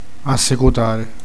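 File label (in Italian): "mandare via qualcuno", Sicilian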